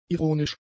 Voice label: "ironisch", german; adjectives

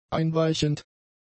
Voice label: "einweichend", german; adjectives

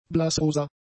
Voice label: "blassrosa", German; adjectives

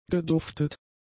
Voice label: "geduftet", German; adjectives

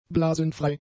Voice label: "blasenfrei", German; adjectives

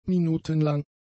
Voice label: "minutenlang", German; adjectives

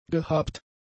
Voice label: "gehabt", german; adjectives

